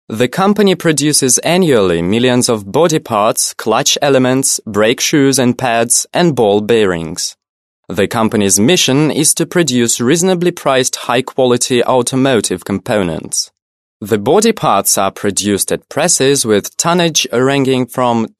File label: british english male